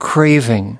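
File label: "craving", US English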